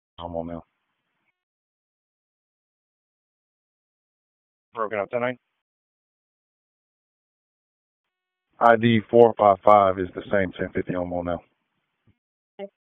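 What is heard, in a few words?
Police Dispatch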